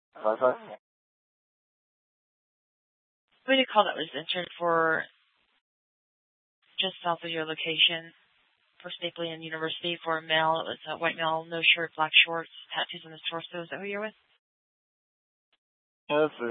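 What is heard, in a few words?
police department dispatch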